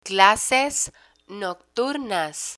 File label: "clases nocturnas", Spanish